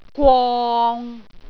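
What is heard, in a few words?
"gwong6", Cantonese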